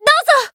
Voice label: Voice limitbreak jp